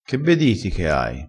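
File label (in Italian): "be", vocabolario dialettale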